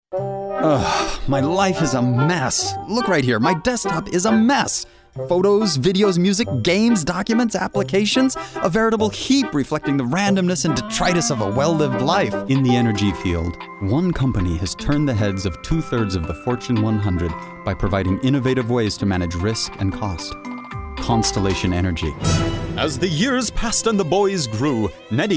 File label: voiceover demo